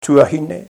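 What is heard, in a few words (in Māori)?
"tuahine", Maori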